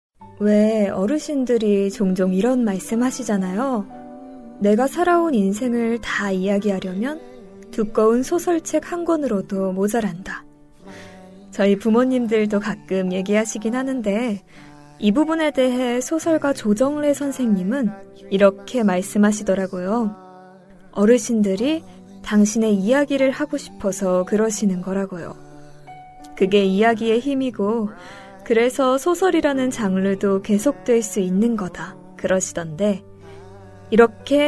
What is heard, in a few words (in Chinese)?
韩语女声 旁白